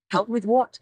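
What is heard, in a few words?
Voice Acting es, female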